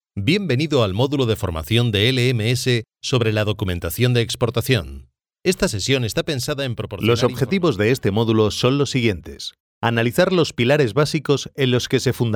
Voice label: e-learning